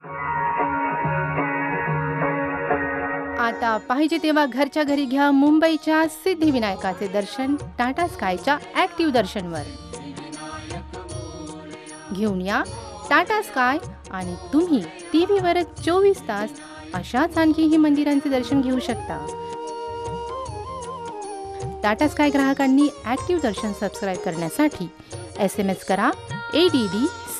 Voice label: promo; tatasky